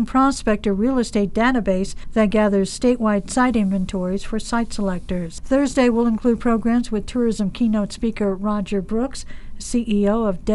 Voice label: wyoming radio news